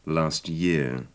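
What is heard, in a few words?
last year, British English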